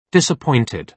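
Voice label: "disappointed", British English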